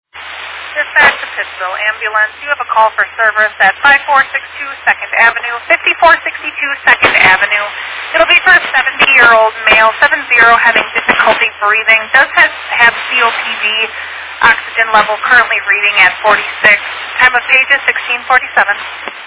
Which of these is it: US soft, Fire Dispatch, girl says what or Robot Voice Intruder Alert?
Fire Dispatch